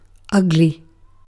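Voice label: "ugly", British English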